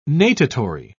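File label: "natatory", British English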